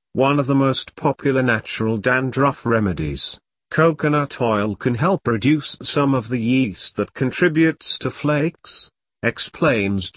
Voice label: Read Aloud model answer, pte speaking